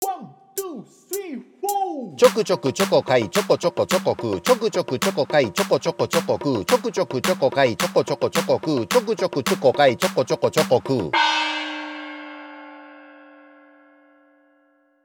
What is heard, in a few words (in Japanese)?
早口言葉リズム（中級編）